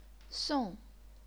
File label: "song4", chinese pinyin